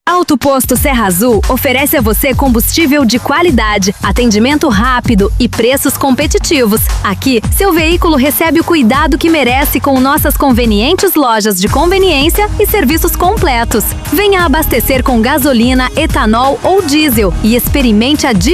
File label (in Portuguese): Spot Simples; Auto Posto Serra Azul